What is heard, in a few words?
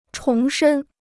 "重申", Chinese